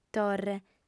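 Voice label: "torre", Sardinian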